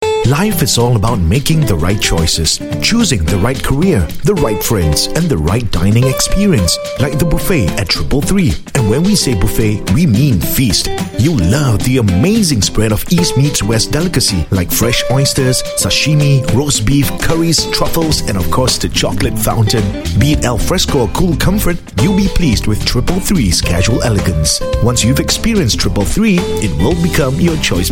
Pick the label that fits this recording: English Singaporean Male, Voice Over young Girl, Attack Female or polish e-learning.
English Singaporean Male